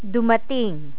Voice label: "dumating", Tagalog

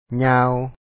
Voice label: "ja4aw", Lao; ຍາວ

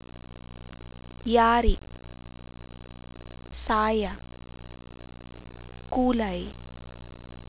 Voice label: y, Tagalog, Consonants

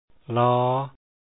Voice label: l sound, lao consonant sounds

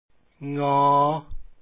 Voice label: ng sound, lao alphabet sounds

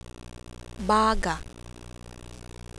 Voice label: "baga", Tagalog, glot; Consonants; Phonology

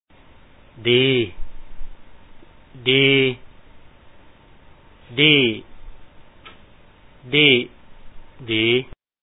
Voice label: dii, ດີ/ dii ດີ່ ດີ້ ດີ໊ ດີ໋, Lao; Reading Exercise

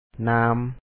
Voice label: "ນາມ", Lao; na2am

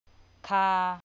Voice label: "kha", thai, tones; คา; Low/long vowels; Bangkok Thai

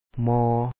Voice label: "mO4O", Lao; near

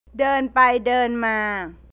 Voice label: "walk", thai; เดินไปเดินมา

